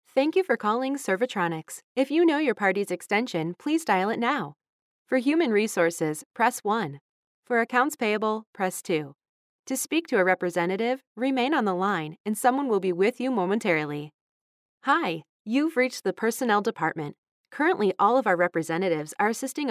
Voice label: Phone-Hold Female

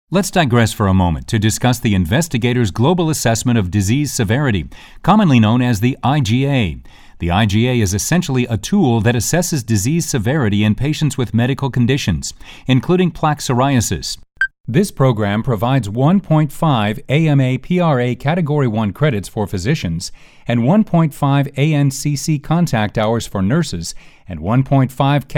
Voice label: eLearning Male; commercial voiceover

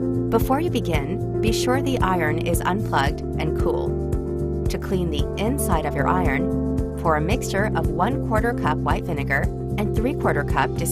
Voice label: explainer tutorial, commercial voiceover

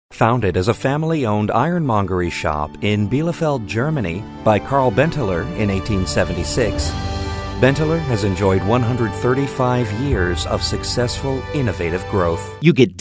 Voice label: Narration Corporate

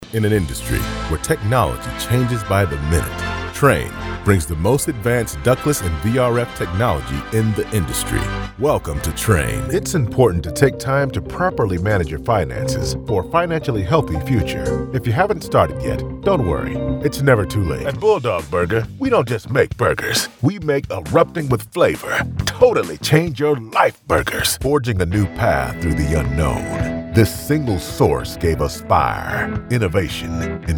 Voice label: Commerical Male

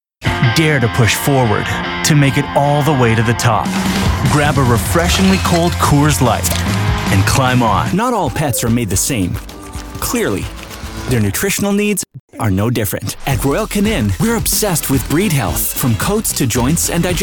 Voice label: Commercial, male

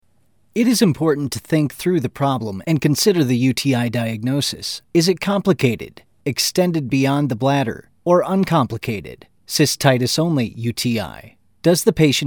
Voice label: Narration Medical Male

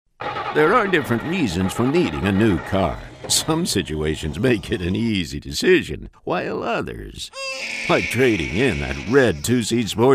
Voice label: Commercial Male; commercial voiceover

